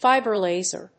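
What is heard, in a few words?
"Fiber laser", English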